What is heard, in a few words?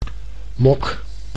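"mok", Dutch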